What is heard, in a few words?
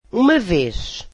portuguese once